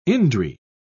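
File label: "indri", British English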